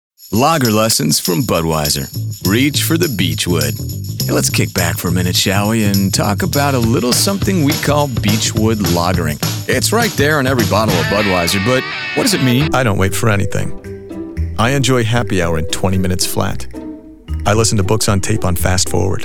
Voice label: commercial male

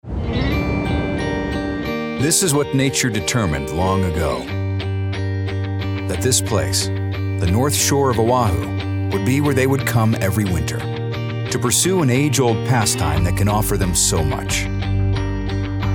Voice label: narration documentary

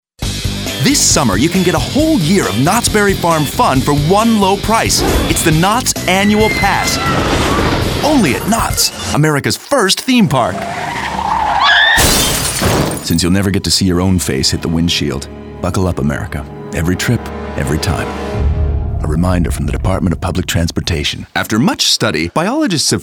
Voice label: celebrity commercial

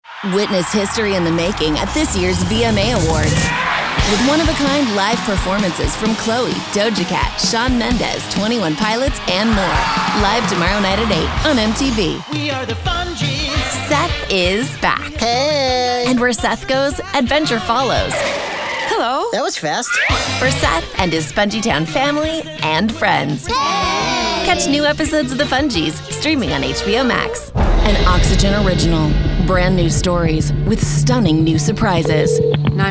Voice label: promo women

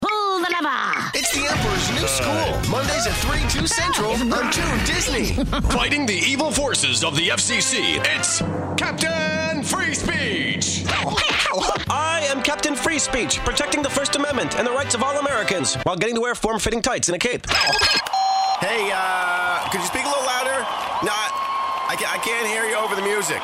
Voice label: animation

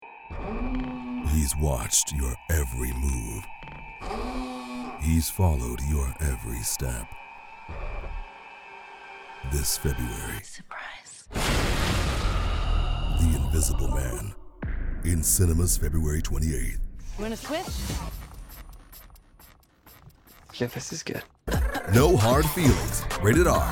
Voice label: trailer men

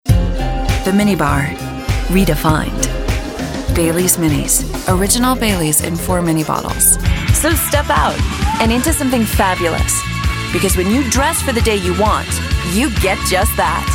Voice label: commercial, commercial women

